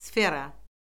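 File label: "sfera", Azerbaijani; Orfoqrafiya Lüğəti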